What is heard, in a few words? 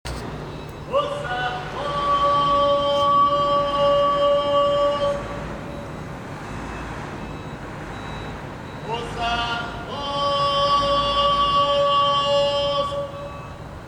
boza vendor